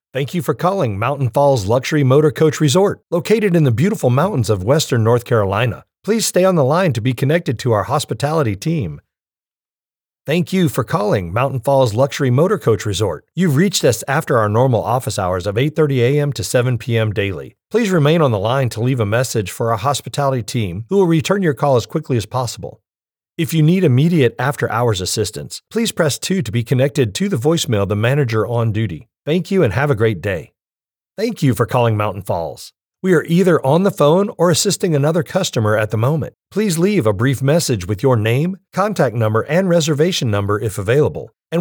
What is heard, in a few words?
Telephone